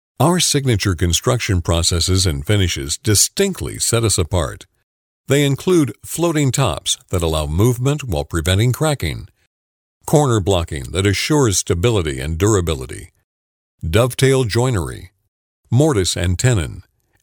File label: Credible